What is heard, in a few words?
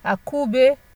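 "akube", Nigerian Pidgin, female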